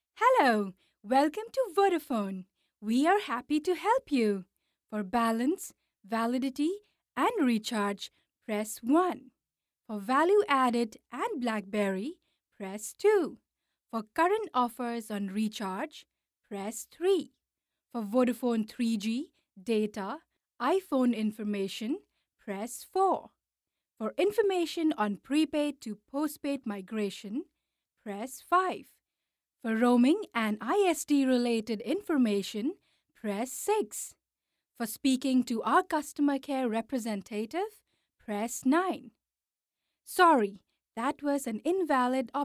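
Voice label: ivr English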